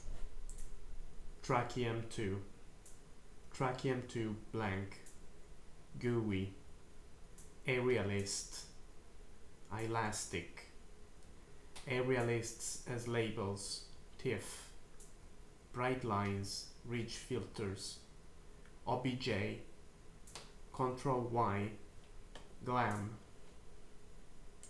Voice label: Pronunciation technical terms